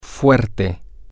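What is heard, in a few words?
Spanish, male; strong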